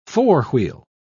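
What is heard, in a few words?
"four-wheel", English, British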